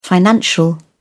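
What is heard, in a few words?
"financial", British English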